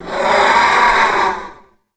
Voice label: taunt, reptilian